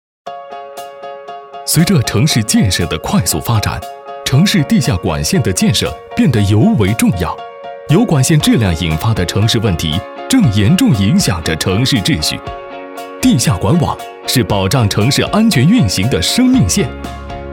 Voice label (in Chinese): 男; 飞碟说MG